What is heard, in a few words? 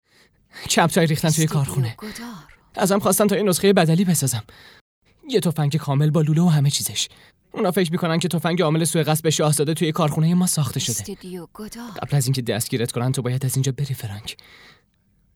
dub